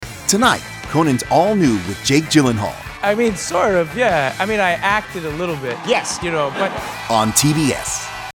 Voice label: promo; CONAN